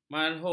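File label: "marhum", Malay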